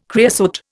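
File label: "creosote", Indian English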